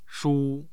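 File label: "shu1", Mandarin Chinese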